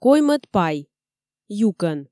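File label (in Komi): коймӧд пай, юкӧн, Komi, female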